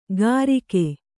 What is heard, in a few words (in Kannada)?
"ಕರಿಕೆ", Kannada